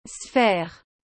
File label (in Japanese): "sphère", フランス語; 女性名詞